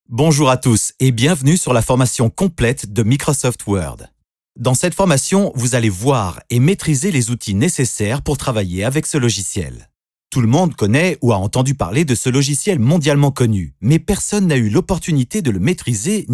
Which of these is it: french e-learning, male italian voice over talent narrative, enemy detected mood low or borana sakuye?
french e-learning